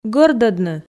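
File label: "гӧрдӧдны", Komi, female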